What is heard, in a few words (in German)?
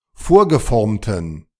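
"vorgeformten", German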